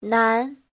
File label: "nán", Chinese